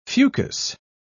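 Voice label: "fucus", British English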